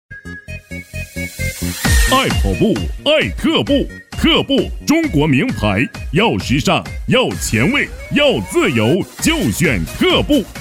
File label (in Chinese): 男 服饰促销